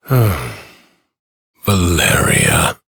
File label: Ambient Bark Sad; Duviri; Teshin